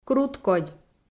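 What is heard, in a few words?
"круткодь", Komi, female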